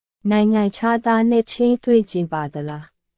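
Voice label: Myanmar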